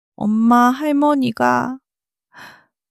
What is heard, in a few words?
crying, monologue